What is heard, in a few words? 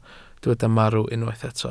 "marw", welsh